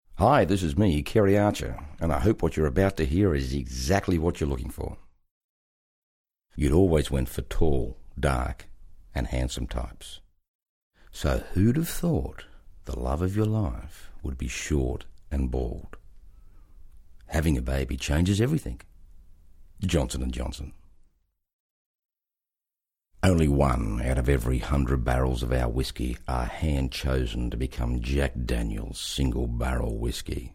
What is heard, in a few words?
deep voice over